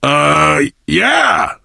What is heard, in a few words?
initial meeting, survivor voice biker; Left 4 Dead 2